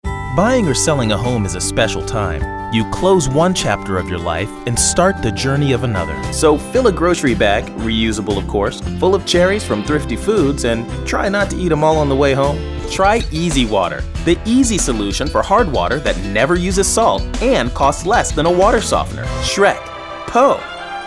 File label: friendly conversational